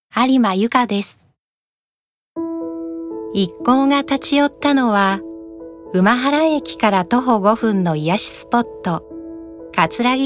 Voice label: ノーマル

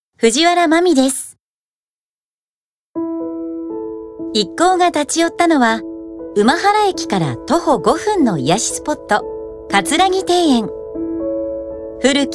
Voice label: ノーマル

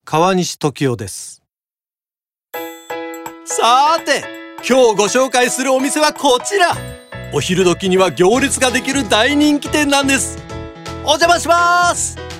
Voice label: バラエティー

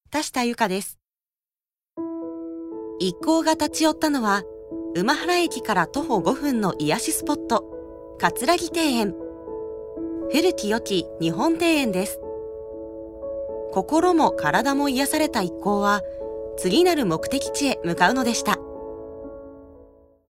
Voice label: ノーマル

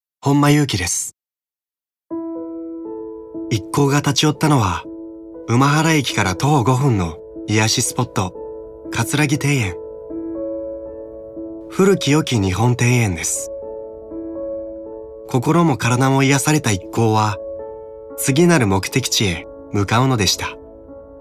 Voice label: ノーマル

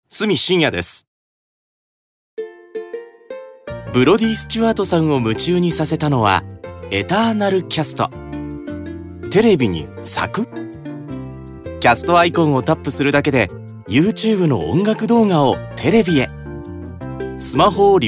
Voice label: ナチュラル

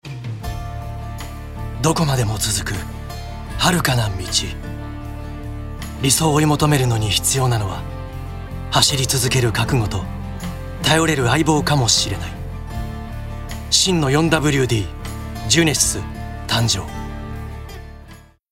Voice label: ハード ウィスパー

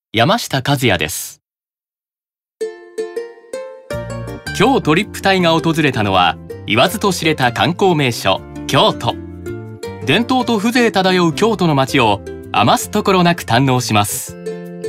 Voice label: ナチュラル

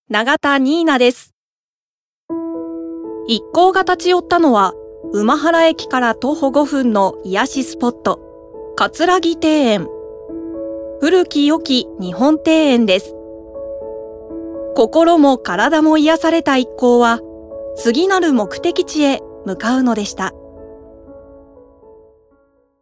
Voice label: ノーマル

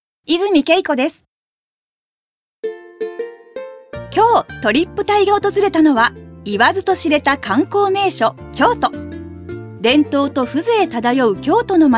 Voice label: ナチュラル

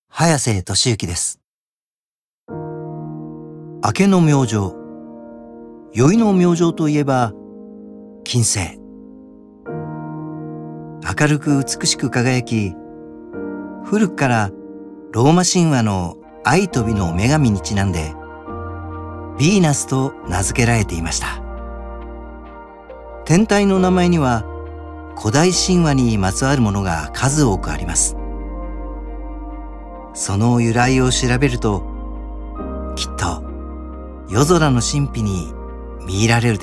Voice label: 語り

